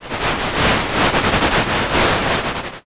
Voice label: whisper